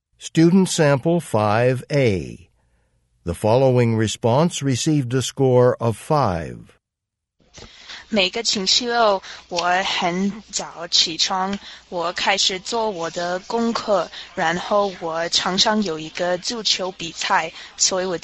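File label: chinese conversation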